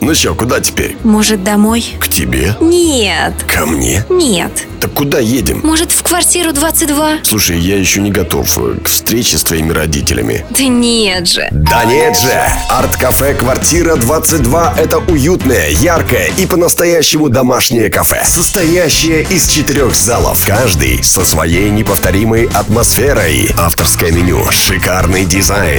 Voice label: РЕКЛАМНЫЙ РОЛИК; Квартира 22 - Общий - С Контактами

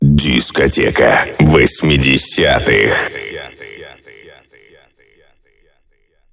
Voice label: Войс дроп - Мужской голос

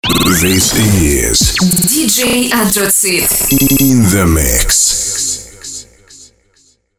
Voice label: Джингл Двухголосый, M7+Ж3

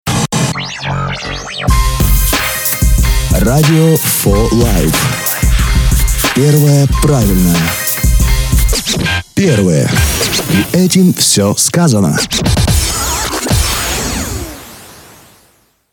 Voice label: Джингл Радио; Радио 4life; SL PRO

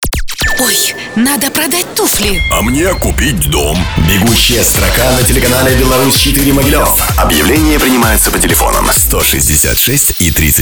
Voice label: РЕКЛАМНЫЙ РОЛИК; Б4 - 4 - Бегущая Строка 2

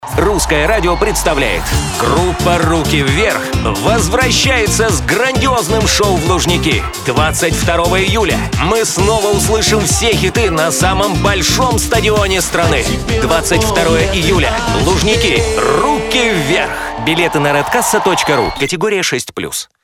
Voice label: Ролик - диктор

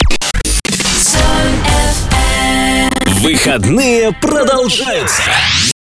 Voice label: ДЖИНГЛ; Соль Эф-Эм - Выходные Продолжаются

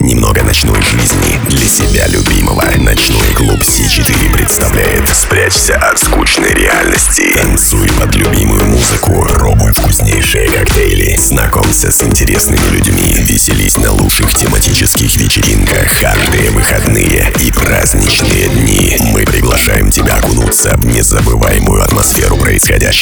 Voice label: РЕКЛАМНЫЙ РОЛИК; Си-Четыре - Общий Ролик - C Поправками

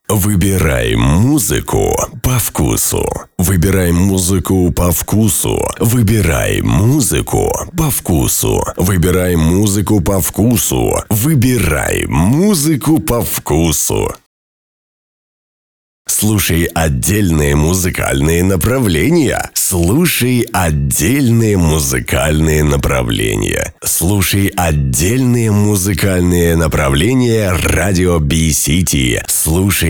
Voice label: НАЧИТКА

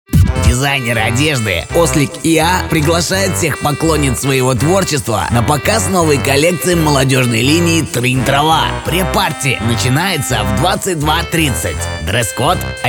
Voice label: аудио-реклама

